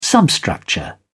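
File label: "substructure", British English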